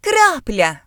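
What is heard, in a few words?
drip, Ukrainian; gcompris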